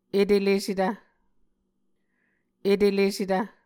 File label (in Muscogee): "etelesetv", Muscogee